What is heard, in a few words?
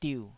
"diuh3", Taiwanese syllable